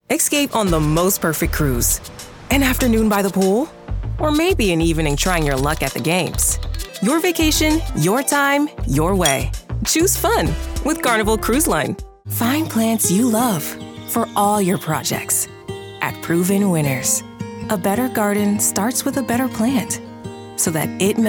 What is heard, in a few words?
Commercial Reel